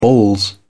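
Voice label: "balls", British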